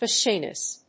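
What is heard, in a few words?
"phasianus", English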